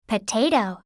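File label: "potato", English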